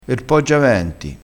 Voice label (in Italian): "poggio a 20", crespinese